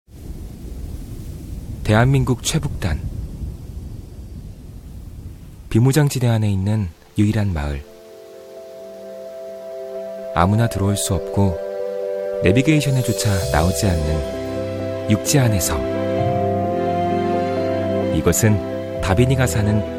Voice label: 광고 따뜻하고 편안한 느낌

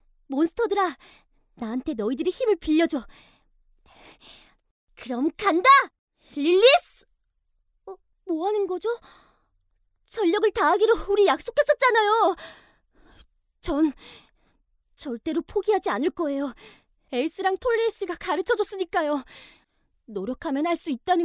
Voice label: 10대 남아 용기있는 열혈 소년

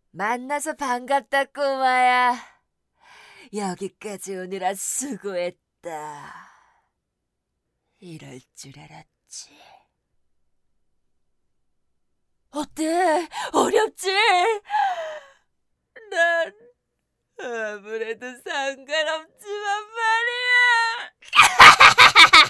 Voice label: 게임 중성적이고 악랄한 15세 소년 악마

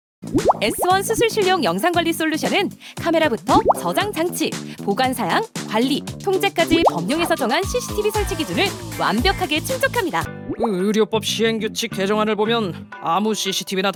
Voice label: 홍보 밝은 남여 연기포함

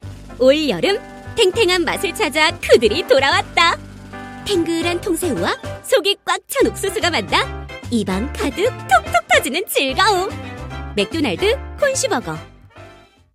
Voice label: 에너지 있는 경쾌한; 맥도날드

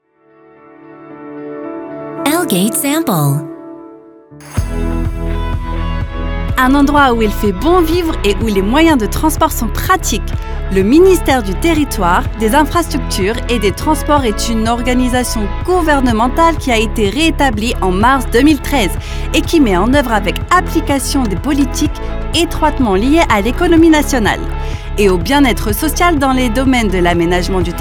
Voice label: 프랑스 여 밝은 톤

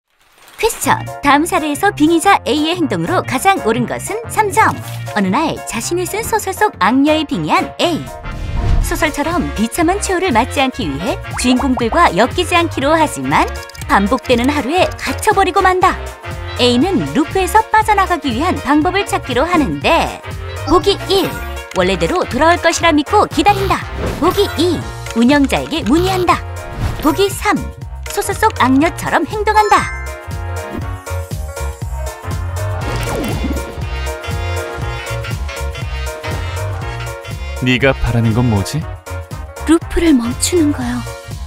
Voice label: 트레일러; 웹툰 『악녀 메이커』

